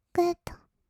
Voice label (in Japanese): "グッド", kawaii voice; 音ゲー